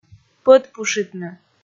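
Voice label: "пӧдпушитны", Komi, female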